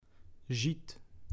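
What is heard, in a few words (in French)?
"gite", French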